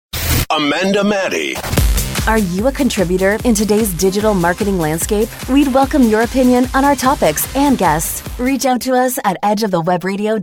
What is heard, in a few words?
Female VO Talent; Premium Voice Over Talent in Indianapolis